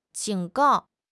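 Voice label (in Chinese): "警告", Chinese; HSK6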